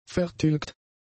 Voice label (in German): "vertilgt", German; adjectives